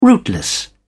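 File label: "rootless", English, British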